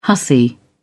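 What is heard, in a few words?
"hussy", British English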